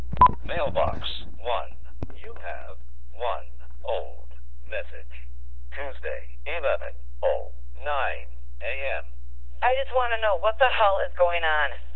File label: Answering Machine